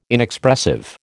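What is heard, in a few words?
"Inexpressive", American English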